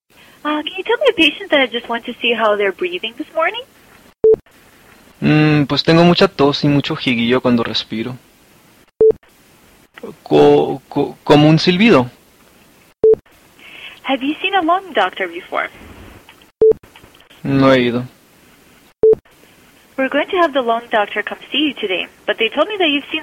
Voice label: Medical Asthma Check Up Spanish without interpretation